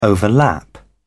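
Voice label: "overlap", British English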